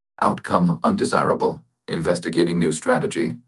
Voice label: upset; cybro; fertig classic games